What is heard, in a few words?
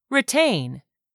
"RETAIN", english; 3000 words